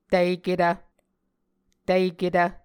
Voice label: "tiketv", muscogee

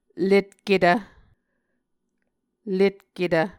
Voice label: "letketv", Muscogee

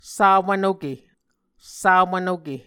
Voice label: "sawvnoke", Muscogee

